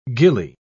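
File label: "ghillie", British English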